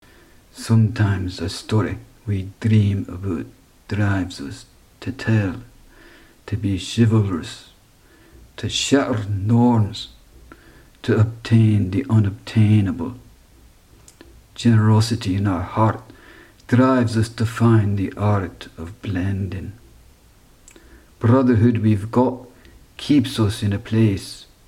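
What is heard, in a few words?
UK English Scottish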